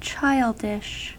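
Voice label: "childish", US English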